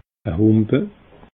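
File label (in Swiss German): "e Humpe", Lower Alsatian Sulz unterm Wàld; Ùnterelsässisch; [ɐ ˈhʊmpə]; ‚e Hùmpe [Bier]‘; Stimmlose glottale Frikativ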